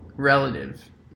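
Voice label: "relative", American English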